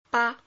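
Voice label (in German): Phoneme, chinesisch; Silben; Chinesischen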